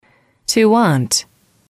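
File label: "to want", English, female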